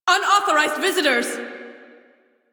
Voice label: Crew Enemy Spot Female en; Railjack Crew; Cephalon Suda